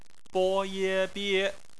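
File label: "bie", bopomofo, chinese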